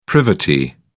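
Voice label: "privity", British English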